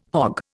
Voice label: "hog", Indian